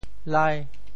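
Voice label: "lai5", Teochew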